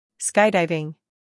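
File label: "humor", British; skydiving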